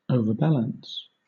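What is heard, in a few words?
"overbalance", British English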